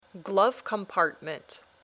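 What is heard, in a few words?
"glove compartment", English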